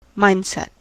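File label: "mindset", US English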